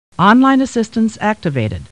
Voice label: Computer Voice; Star Trek; StarTrekLCAR